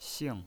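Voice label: "xing", pinyin voice, fourth tone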